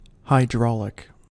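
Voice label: "hydraulic", US English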